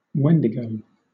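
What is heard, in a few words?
"wendigo" English, British; pronunciations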